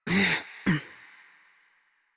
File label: Horse Mount Adult; Warframe; Duviri